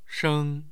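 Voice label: "sheng1", Mandarin Chinese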